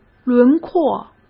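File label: "轮廓", Mandarin Chinese; hsk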